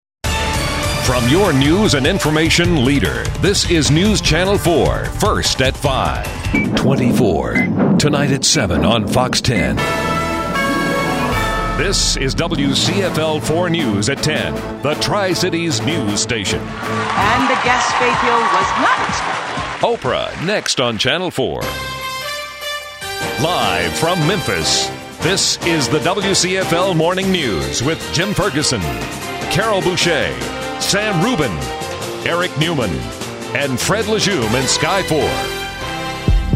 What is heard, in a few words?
Promo